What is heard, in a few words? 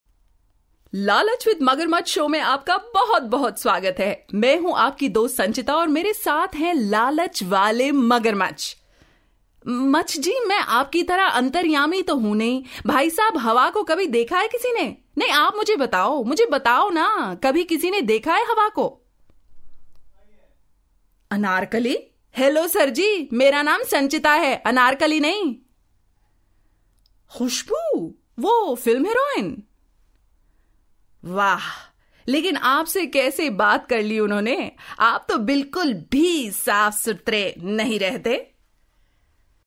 drama hindi, female voice